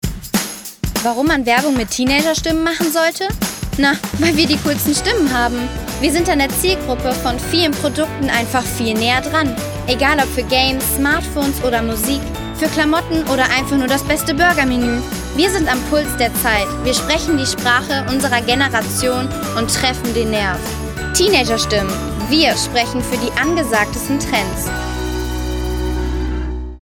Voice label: Werbung Teenagerstimmen

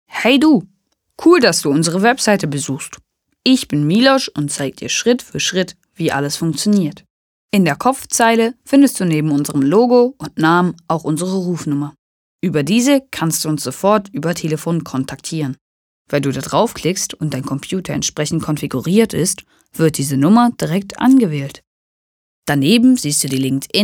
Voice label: Webseitenintro

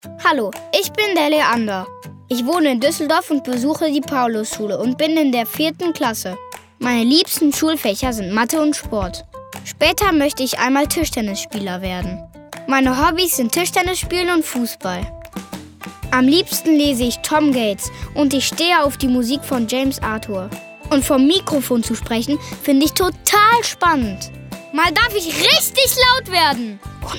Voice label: Selbstvorstellung DE

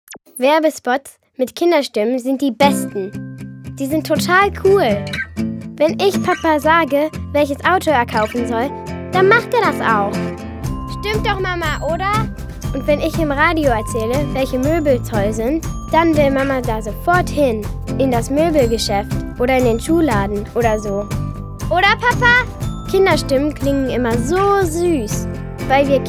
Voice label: Werbung DE

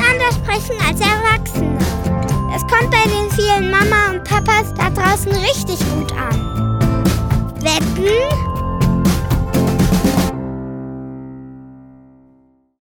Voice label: Werbung Kinderstimmen

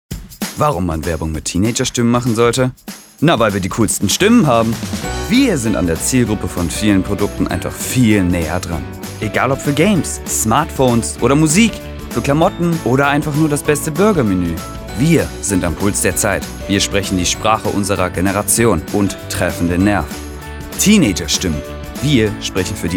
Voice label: Werbung

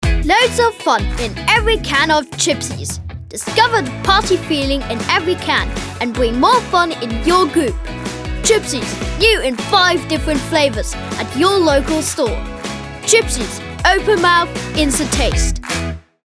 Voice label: Werbung EN; Chipsies

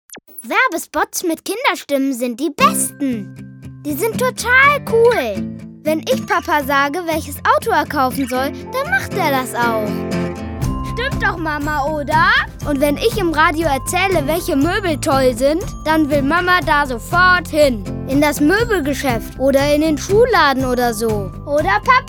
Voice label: Werbung

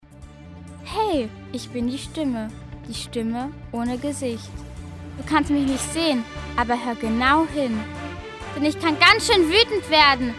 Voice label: sternschnuppe wetter DE

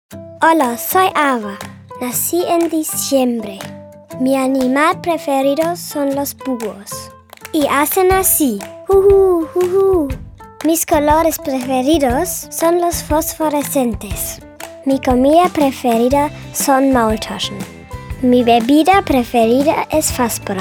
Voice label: Selbstvorstellung ESP

